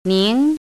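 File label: "níng", Chinese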